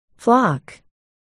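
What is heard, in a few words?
"flock", spoken word, articulation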